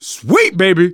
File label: reaction positive, manager, survivor, voice; Left 4 Dead 2; left4dead2 dlc2